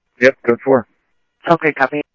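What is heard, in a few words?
police department, scanner audio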